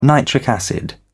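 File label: "nitric acid", British English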